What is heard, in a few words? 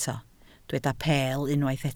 "peel", Welsh